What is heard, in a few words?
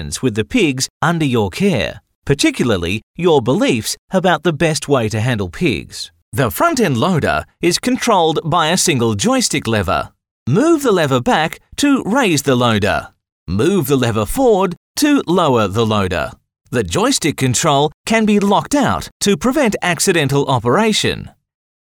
E Learning